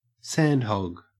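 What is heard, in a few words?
"sandhog", Australian English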